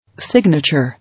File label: "Signature", English